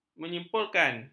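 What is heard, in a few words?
"menyimpulkan", Malay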